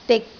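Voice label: "dik6", Cantonese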